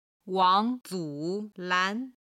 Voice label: "wang2zu3lan2", chinese